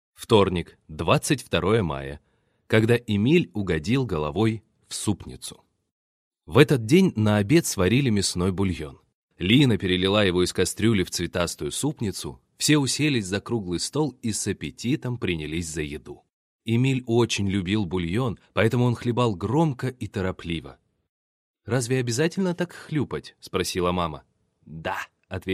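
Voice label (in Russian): Пример аудиокниги сухой голос без обработки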